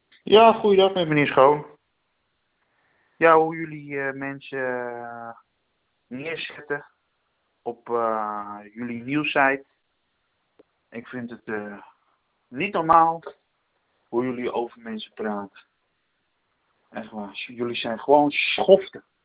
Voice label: voicemail